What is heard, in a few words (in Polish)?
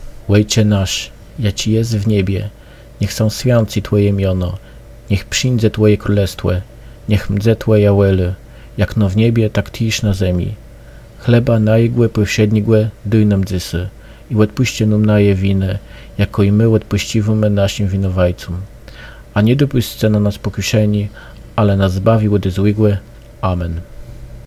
Ojcze nasz po kaszebsku